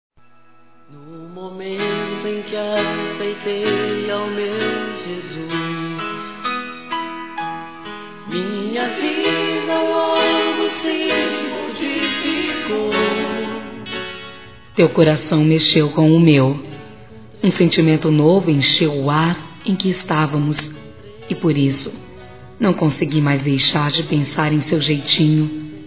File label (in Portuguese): PAQUERA (F), Telemensagens, Gospel; fem; Telemensagens 2017